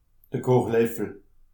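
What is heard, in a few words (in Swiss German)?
"kochleffel", Alsatian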